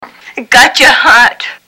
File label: Whining; Mistress